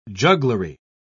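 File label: "jugglery", British English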